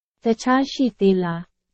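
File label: Burmese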